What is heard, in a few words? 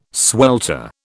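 "swelter", British English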